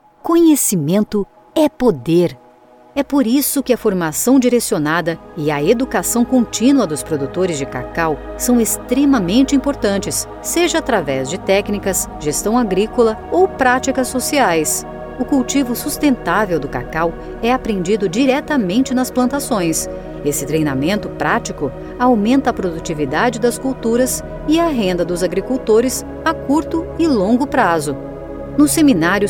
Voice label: Portuguese Brazilian audio guides